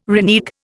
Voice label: "renege", Indian